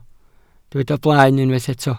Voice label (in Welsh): "blaen", Welsh